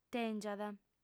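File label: "tèngiat", female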